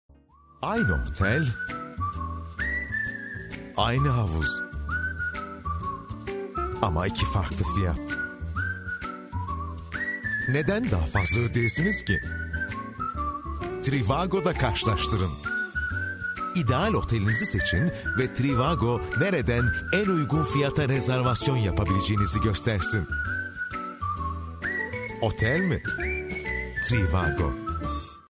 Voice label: male turkish voice over talent sales